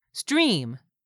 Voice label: "STREAM", english; 3000 words